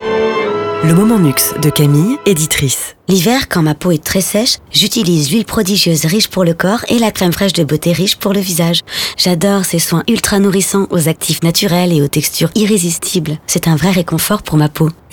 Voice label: radio; Nuxe

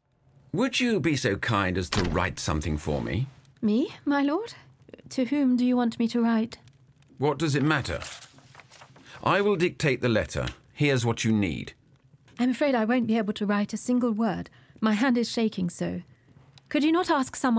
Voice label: acted scene English VO; The Kings Gallant; Dumas

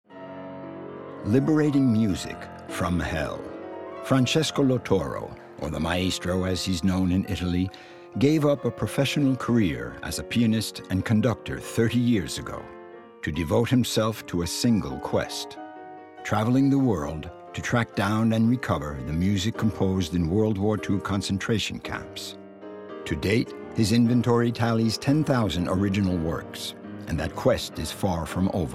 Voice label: narrator

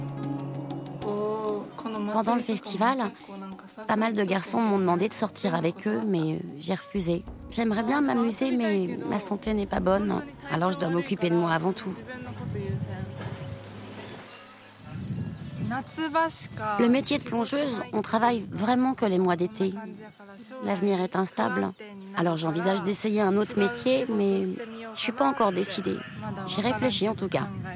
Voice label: voice over; de mer en fille

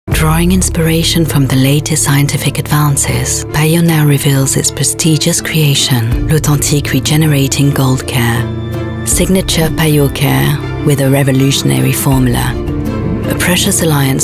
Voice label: UK; PAYOT L'Authentique

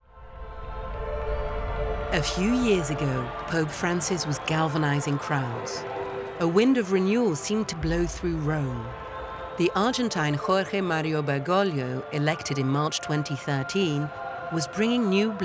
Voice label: ENG narr docu; POPE FRANCIS

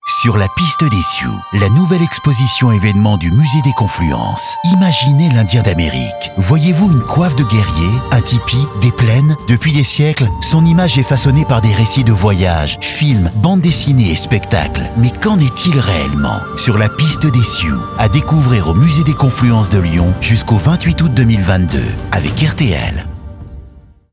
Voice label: SPOT; SIOUX

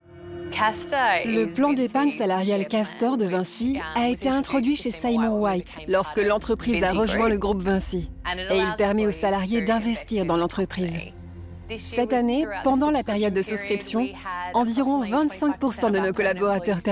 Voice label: voice over; VINCI

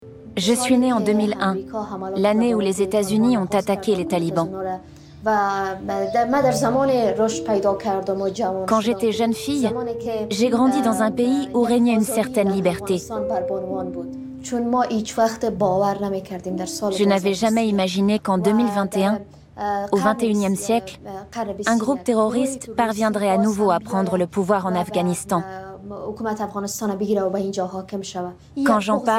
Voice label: VOICEOVER FR; ARTE; Femme afghane

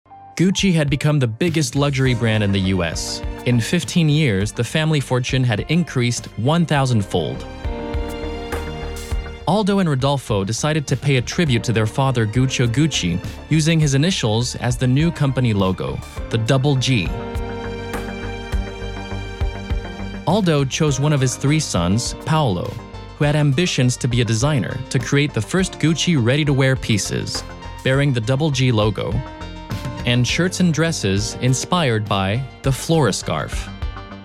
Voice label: Narration docu US; Arte; Gucci

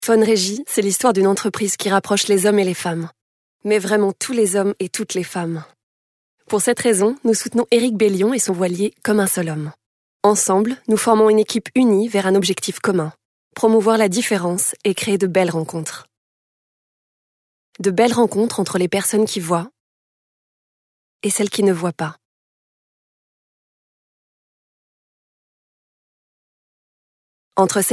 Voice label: Phone Regie Instit

